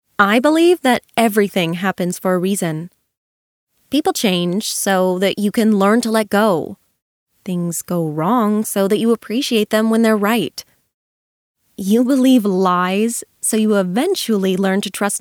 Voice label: Poetic

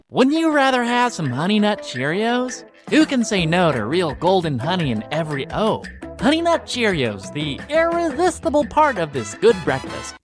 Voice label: Pub, US; Cheerios

